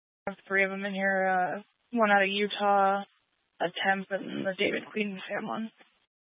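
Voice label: Sheriff Dispatch, Scanner audio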